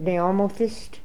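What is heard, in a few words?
"Neomothist", pronunciations; Fourth Way; Beelzebub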